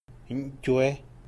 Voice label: "fuego", Pame; INAH; INALI

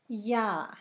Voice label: cañuela, Zapoteco; Objetos; San Pedro Mártir; INALI

